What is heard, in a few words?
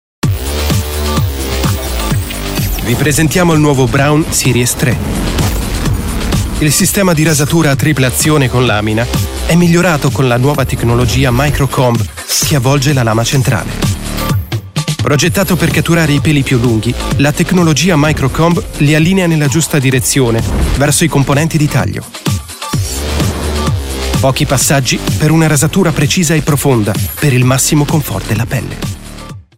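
italian werbung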